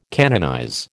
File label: "canonize", American